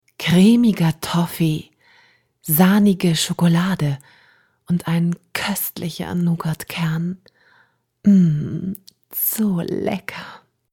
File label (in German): Sprecherdemo Werbung